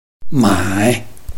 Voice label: "mai3", Chinese